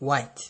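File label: "white", Nigerian Pidgin, male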